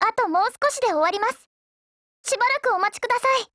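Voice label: LOADING, Japanese; Danuvia43M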